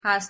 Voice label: "hast" Armenian